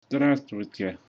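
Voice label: hello, Russian; Здравствуйте; Helô / Shwmae (ffurfiol)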